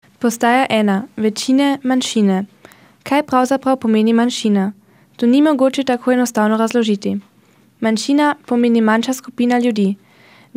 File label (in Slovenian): slovenski